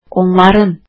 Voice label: "their", azeri

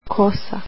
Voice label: hair, croatian

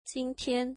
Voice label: "today", mandarin

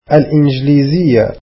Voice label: "English", arabic

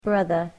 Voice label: "brother", english

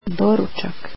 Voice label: "breakfast" croatian

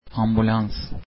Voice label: "ambulance", Turkish

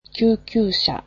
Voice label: "ambulance", japanese

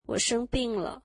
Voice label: I am sick, mandarin

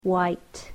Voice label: "white", English

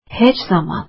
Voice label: never, azeri

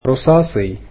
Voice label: "grey", egyptian

